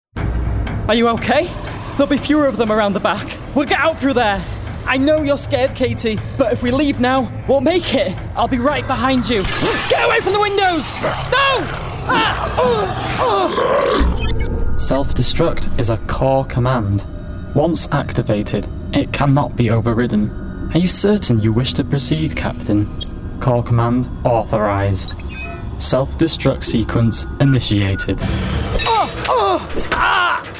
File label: Gaming Character